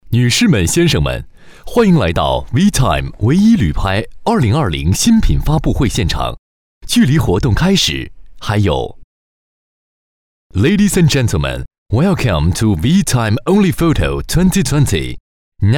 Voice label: 男英 多媒体 广播提示 中英发布会提示音; 英语

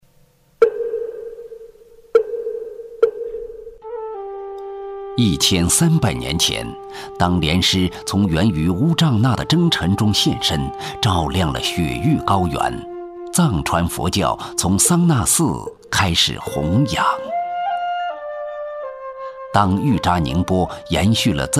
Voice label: 男国 纪录片 人文历史 成熟; 藏传佛教

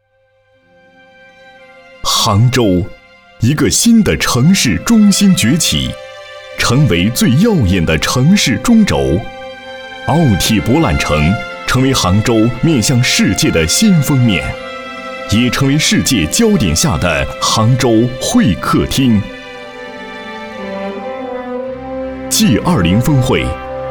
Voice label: 宣传片, 城市, 浑厚; 杭州

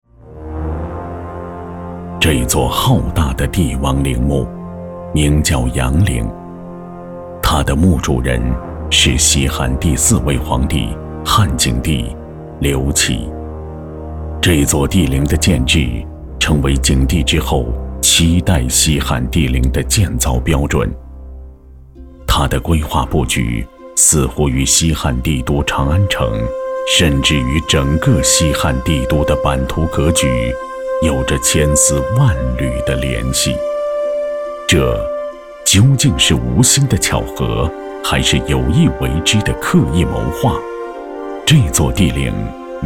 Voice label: 纪录片, 人文历史; 阳陵

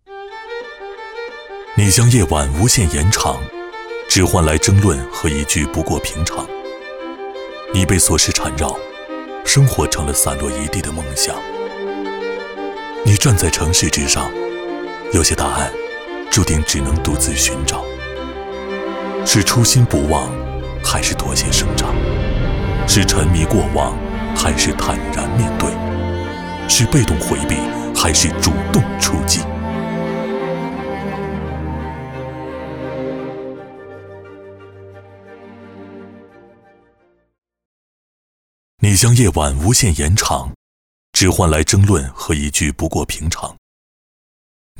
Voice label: 宣传片 地产 低沉, 男国; 万科翡翠湖山

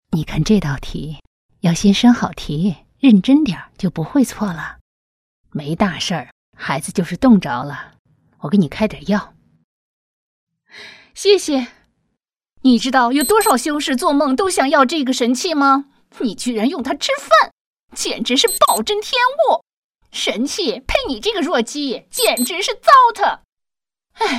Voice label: 女 动画 角色 母亲 医生

